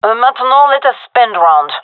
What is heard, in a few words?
request spend, gendarmerie fem; vo